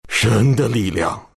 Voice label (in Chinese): attack, zh; Chen; Dota 2